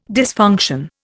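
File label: "dysfunction", Indian English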